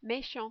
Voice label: "mechant", French

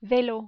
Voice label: "velo", French

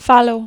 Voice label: "falot", French

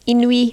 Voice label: "inoui", French

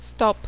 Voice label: "stop", French

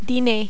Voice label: "diner", French

